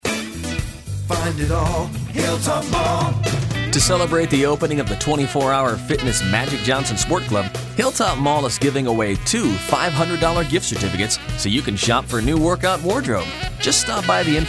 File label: Radio; Hilltop Mall